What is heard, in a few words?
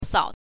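"sao", Mandarin Chinese, neutral tone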